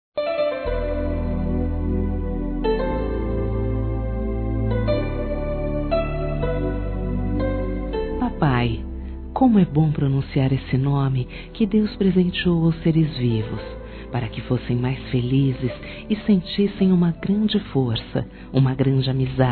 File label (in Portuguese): PERDÃO F, Telemensagens, Dia dos Pais, fem; Telemensagens 2017